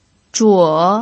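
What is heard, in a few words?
"zhuo3", Mandarin Chinese pinyin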